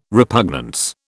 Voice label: "repugnance", British